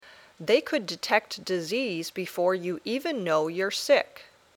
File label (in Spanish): Dictado